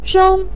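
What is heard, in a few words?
"sheng", Mandarin Chinese, neutral tone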